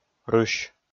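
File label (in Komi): "рысь", Komi, male; kpv audio dict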